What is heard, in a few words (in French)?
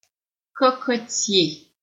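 "cocotier", French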